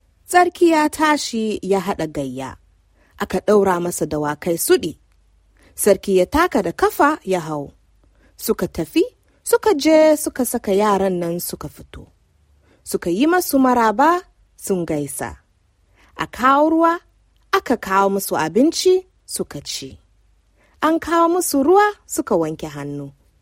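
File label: Hausa, General; GoLocalise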